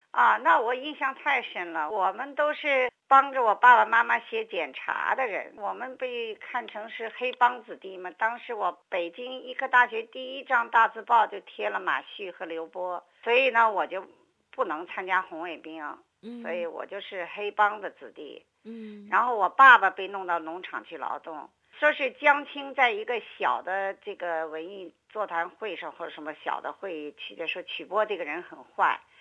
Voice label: mandarin; SBS Chinese